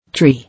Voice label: "Tree", English

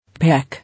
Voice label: "Peck", English

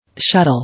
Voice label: "Shuttle", English

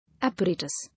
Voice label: "Apparatus", English

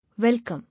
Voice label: "Welcome", English

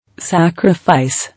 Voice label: "Sacrifice", English

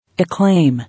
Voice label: "Acclaim", English

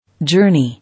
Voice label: "Journey", English

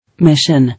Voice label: "Mission", English

